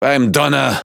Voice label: "Caramba", Speech Quick Commands, DE; World Of Warships